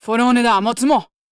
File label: VO angry